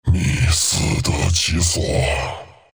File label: rival, zh; Chaknight; Dota 2